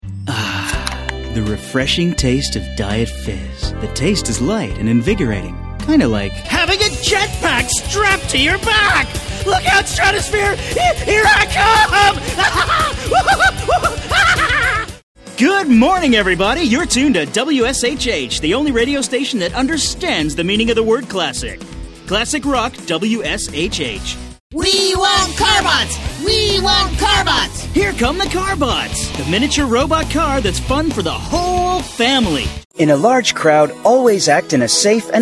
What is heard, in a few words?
TVC; US